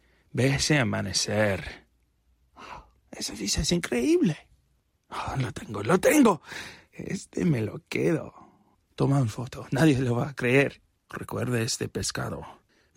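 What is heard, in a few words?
European Spanish, General; GoLocalise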